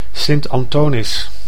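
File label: "Sint Anthonis", Dutch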